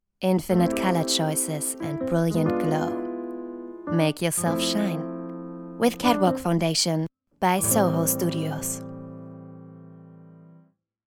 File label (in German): Werbung englisch